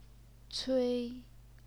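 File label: "cui", first tone, Chinese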